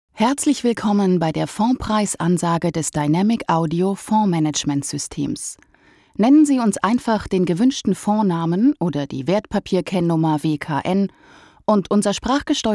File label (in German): deutsch frauen telefon